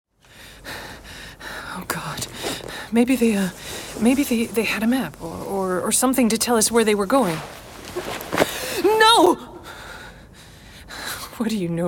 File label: game reel, voiceover